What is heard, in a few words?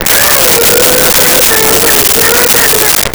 Walkie Talkie Female Chatter; Communications